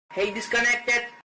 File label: he disconnected indian guy